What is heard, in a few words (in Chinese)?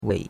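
"wei3", Chinese; Cours-de-Chinois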